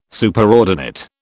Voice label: "Superordinate", British